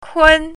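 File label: "kun1", Mandarin Chinese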